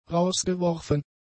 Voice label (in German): "rausgeworfen", German; adjectives